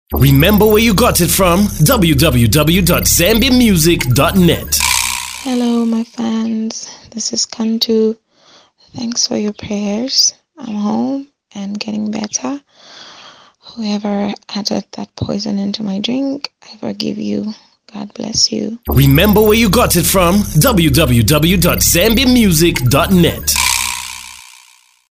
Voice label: Voice Note Speaking About Being Poisoned